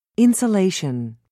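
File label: "insolation", British English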